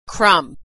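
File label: "crumb", American English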